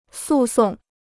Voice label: "诉讼", Chinese